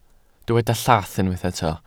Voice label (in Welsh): "llath", Welsh